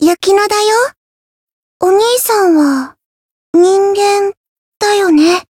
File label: Voice story jp; yukino